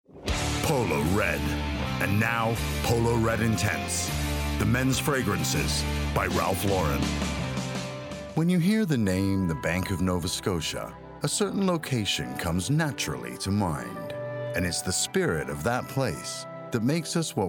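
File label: English US General; GoLocalise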